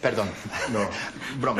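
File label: Voice Acting es Male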